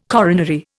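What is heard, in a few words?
"coronary", Indian English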